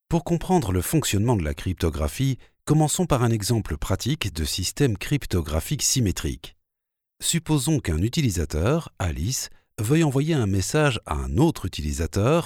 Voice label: french e-learning; french voice actors